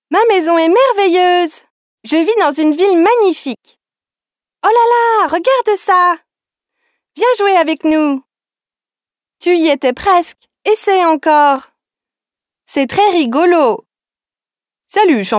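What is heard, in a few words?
French female children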